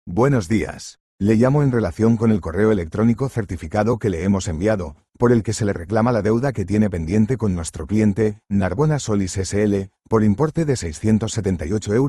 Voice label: audio call